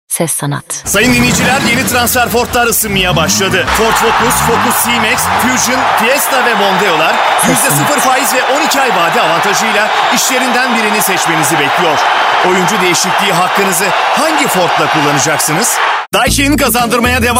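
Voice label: Turkish, male; Spor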